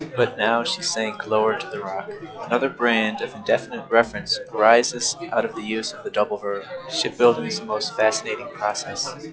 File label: babble 5dB logmmse